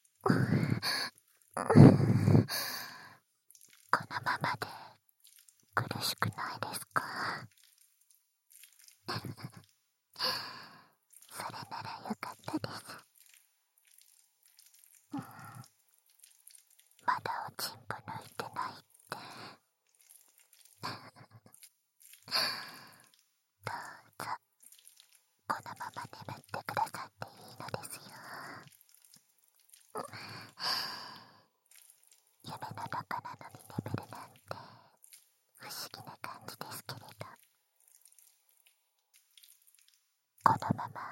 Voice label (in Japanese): 挿入したまま就寝（バイノーラルマイク 効果音あり大きめ）, ASMR